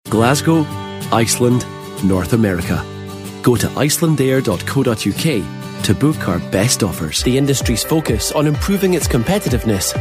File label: scottish, commercial